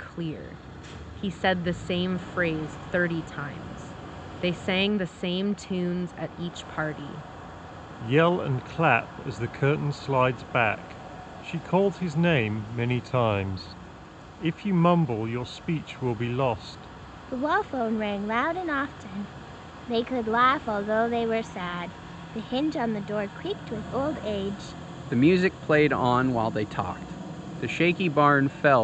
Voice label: Moondrop Chu Street microphone sample

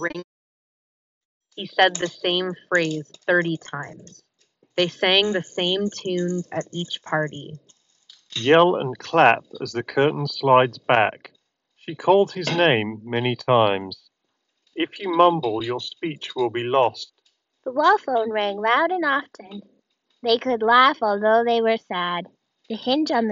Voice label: Office microphone sample; Apple Airpods Pro 2nd generation

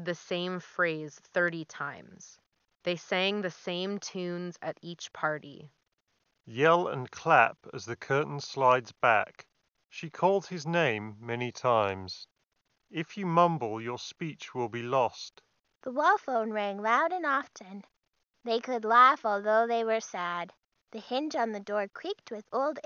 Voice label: Astro A20 wireless G2 Ideal microphone sample; Astro A20 Gen 2

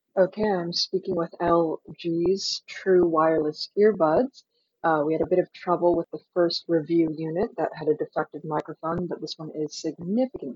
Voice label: LG Tone Free true wireless microphone

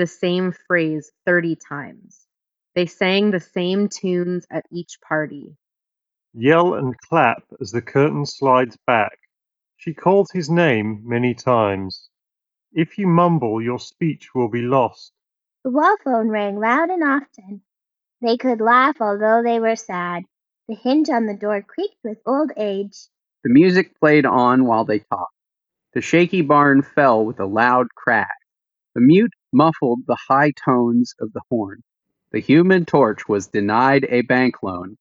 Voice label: Ideal microphone sample; JBL Tour Pro 3

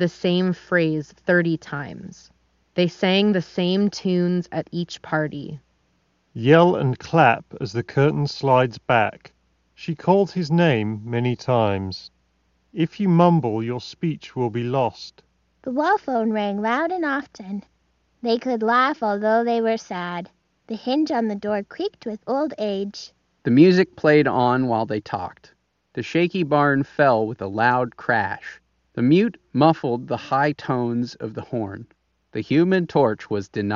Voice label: Ideal microphone sample; Marshall Major IV

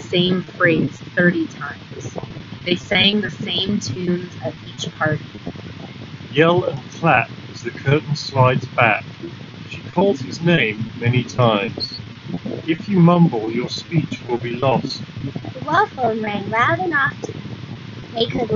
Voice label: Skullcandy Dime 2 Wind microphone sample

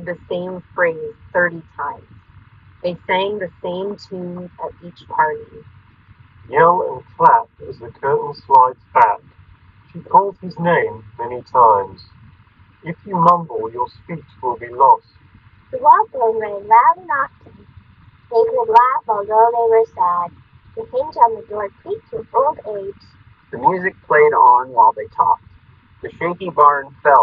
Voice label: Samsung Galaxy Buds FE Wind microphone sample

